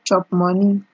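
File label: "chop money", Nigerian Pidgin, female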